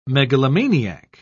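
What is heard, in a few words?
"megalomaniac", British English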